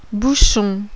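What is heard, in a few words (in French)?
"BOUCHON", French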